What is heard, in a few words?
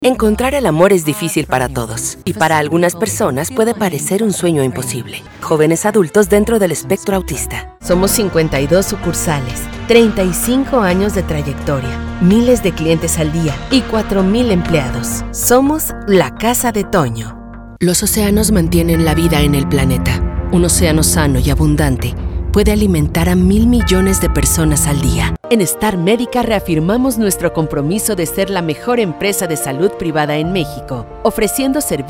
Neutral Spanish Narration Corporate